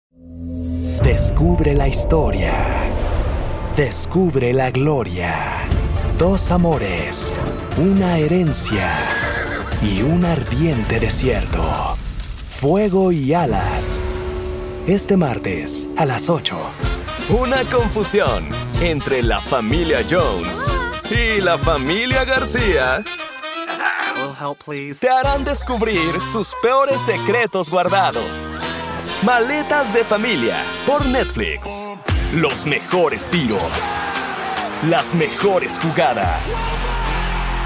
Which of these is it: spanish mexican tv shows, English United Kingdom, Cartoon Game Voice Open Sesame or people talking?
spanish mexican tv shows